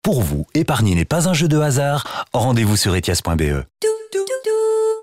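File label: French, male, commercial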